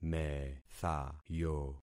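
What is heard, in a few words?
"methayo", concatenated syllables; Affixing experiment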